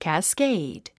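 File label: "cascade", English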